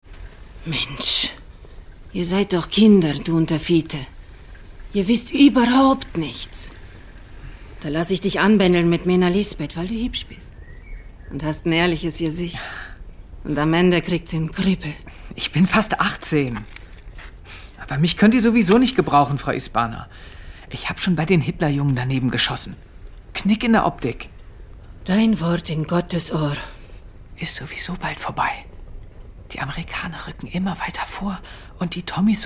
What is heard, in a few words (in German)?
Hoerspiel; Im Fruehlng sterben Teil 1 Zwangsrekrutierung; hr